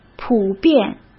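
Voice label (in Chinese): "普遍" Mandarin Chinese; hsk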